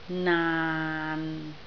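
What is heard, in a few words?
"naan6", Cantonese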